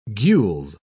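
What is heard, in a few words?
"gules", British English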